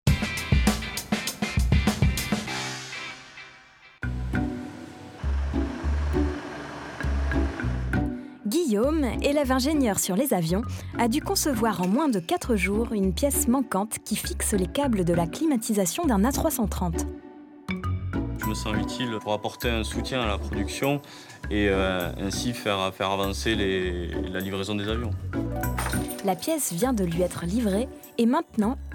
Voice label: VOIX OFF SERIE; M6; Un metier A venir

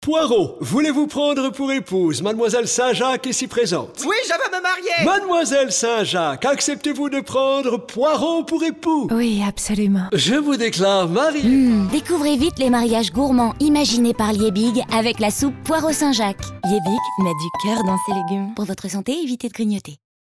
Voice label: RADIO; LIEBIG; MARIAGE POIREAU ST JACQUES

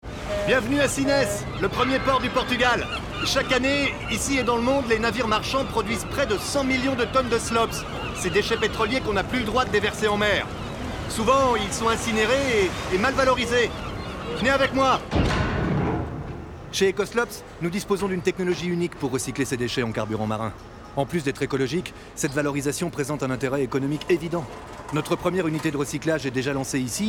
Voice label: joue reporter; Ecoslops

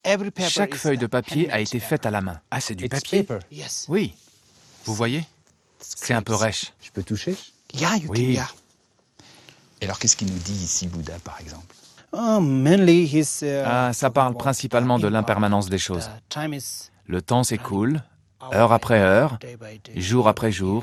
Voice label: Voice over Moine bouddhiste; Faut pas rêver

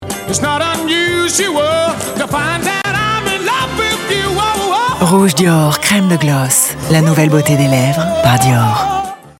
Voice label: élégant sensuel; Dior

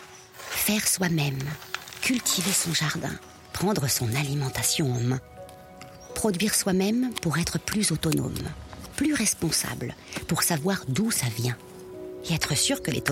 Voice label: voix douce film; gamm vert

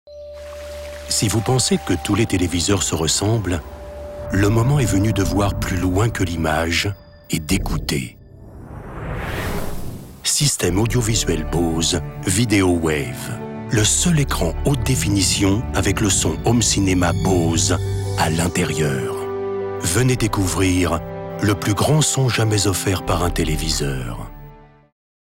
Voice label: Pub Cinema; Bose

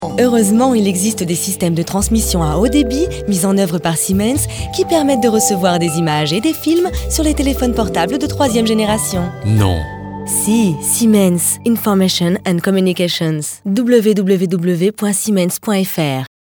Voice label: PUB RADIO VOIX GRAVE; SIEMENS

